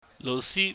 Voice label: "louhsi", Cantonese

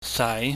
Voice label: "saai", Chinese

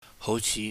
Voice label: "houchih", Cantonese

